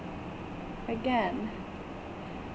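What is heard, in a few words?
"again", Canadian, female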